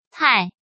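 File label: "tài", Chinese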